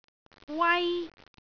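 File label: "wai1", Cantonese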